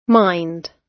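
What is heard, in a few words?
"mind", British English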